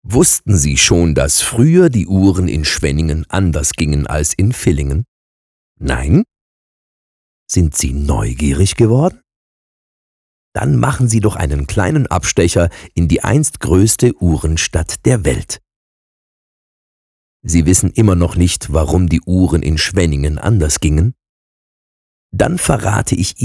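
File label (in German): Erklärfilm; sprecher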